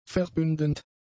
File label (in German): "verbündend", German; adjectives